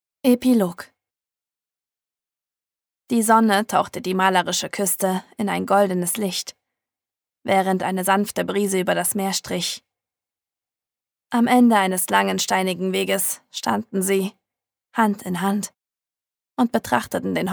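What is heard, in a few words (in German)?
neue Hoerprobe Roman, Sprecherin; Hörbücher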